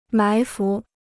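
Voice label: "埋伏", Chinese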